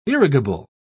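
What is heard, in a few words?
"irrigable", British English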